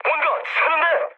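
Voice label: Korean tank damaged mood high; speech; War Thunder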